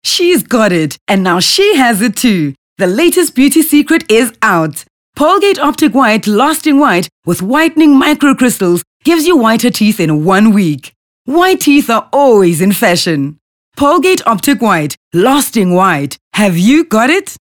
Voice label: English Bright